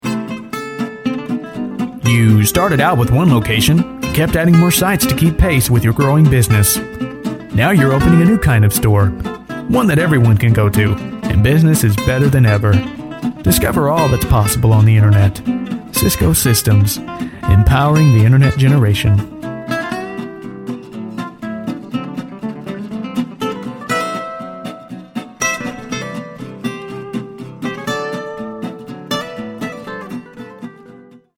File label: V O Professional; Cisco System